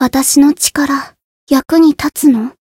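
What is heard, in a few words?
variable chant, Japanese; ruina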